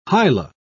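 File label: "hyla", British English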